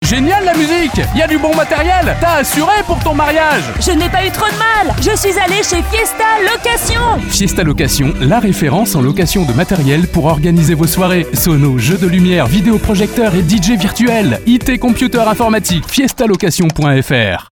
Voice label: PUB RADIO